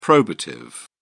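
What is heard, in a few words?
"probative", British English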